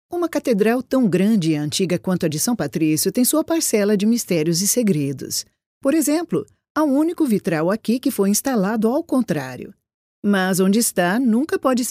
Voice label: brazilian portuguese voice brazilian portuguese female